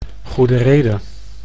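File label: "Goedereede", Dutch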